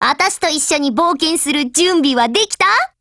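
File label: GO ATTACK Japanese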